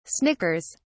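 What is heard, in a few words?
"snickers", British English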